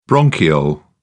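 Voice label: "bronchiole", British English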